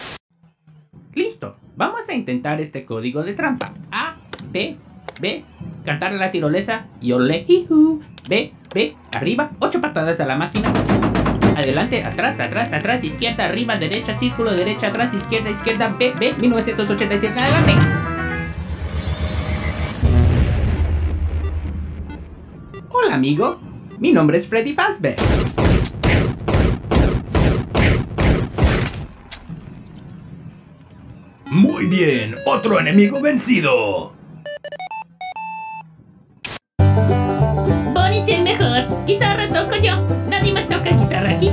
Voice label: Spanish Character Dubbing Reel